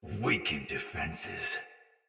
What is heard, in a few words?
Attack Heavy Soldier Sword Agent Male; Battle; Sinecure Melee